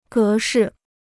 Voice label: "格式", Chinese

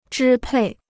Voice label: "支配", Chinese

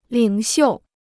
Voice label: "领袖", Chinese

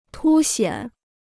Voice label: "凸显", Chinese

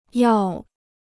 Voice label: "药", Chinese

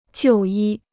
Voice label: "就医", Chinese

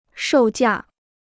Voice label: "售价", Chinese

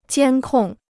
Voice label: "监控", Chinese

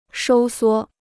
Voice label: "收缩", Chinese

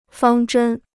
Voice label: "方针", Chinese

